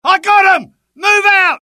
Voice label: suppress, dialogue UK; mohaa

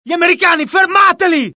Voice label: alert, dialogue IT; mohaa; attack

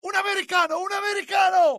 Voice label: alert, dialogue IT; mohaa; attack

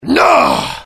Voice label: anger, dialogue IT; mohaa; attack

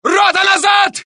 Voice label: Russian; mohaa

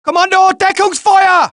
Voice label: German; mohaa

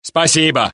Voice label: Russian; mohaa

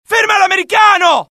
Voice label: alert, dialogue IT; mohaa; attack

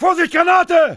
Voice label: fear, dialogue; mohaa

